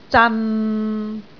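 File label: "zan6", Cantonese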